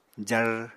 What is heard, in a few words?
"jar", Apatani